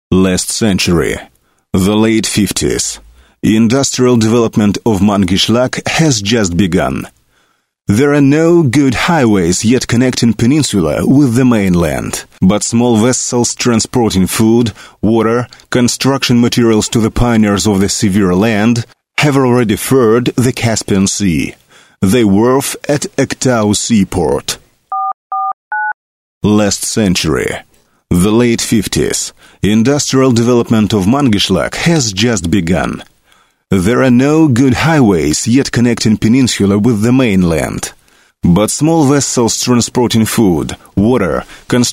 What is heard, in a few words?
english